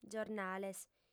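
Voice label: "giornales", Sardinian, female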